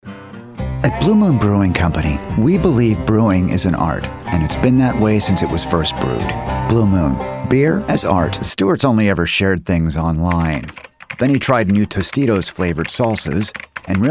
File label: voice over